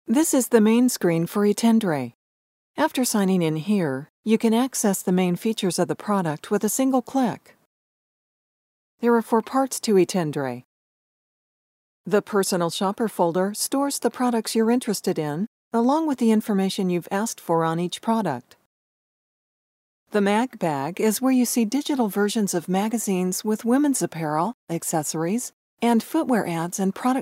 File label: Lighter Narration